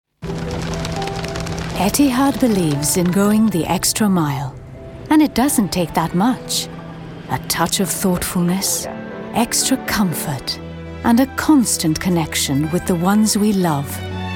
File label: commercial UK